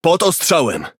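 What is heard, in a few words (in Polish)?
Speech Pilots Torpedo Under Attack, Polish; World Of Warships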